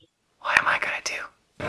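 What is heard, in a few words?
Voice Acting Spanish Female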